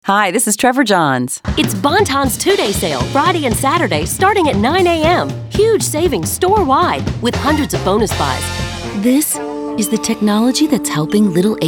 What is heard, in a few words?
Commercial